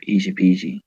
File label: merry christmas, bear; sven; santa bear